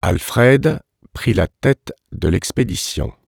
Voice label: creaky